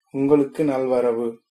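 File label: you're welcome, Tamil, male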